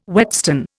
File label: "whetstone", Indian